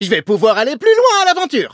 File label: levelup, fr; Cookie Run